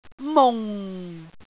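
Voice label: "mung4", Cantonese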